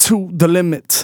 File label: Phrase Male Rap; Vengeance Samples